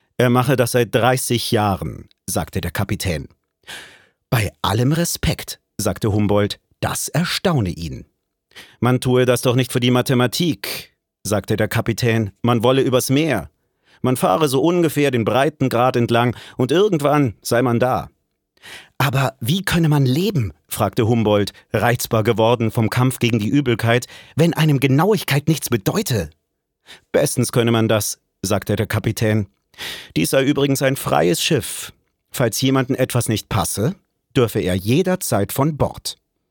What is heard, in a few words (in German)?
Hoerspiel